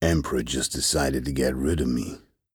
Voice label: male; Skyrim Special Edition; UVNPC Assigned; Winterhold; Legate Sevan